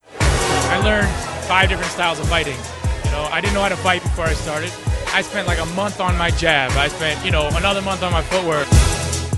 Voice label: interview clip